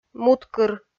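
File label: "муткыр", Komi, female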